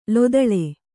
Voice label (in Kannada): "ಲೊದಳೆ", Kannada